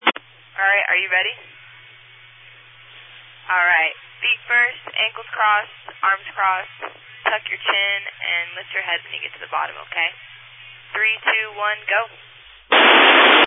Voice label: Family Radio Service (FRS)